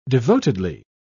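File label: "devotedly", British English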